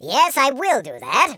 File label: "yes sir", Patronising